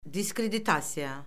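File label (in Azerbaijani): "diskreditasiya", Azerbaijani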